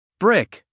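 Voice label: "brick", American English, male; everyone-can-use-english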